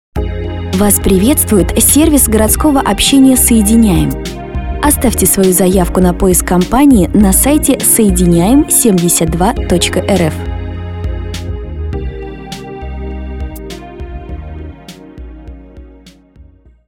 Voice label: IVR